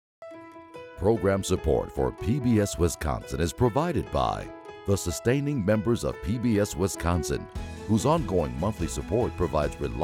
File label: Preamble + Sustainers, male; Promotions; Rebrands